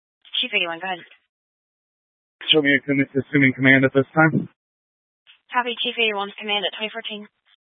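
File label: Incident Tactical 1, Scanner audio recording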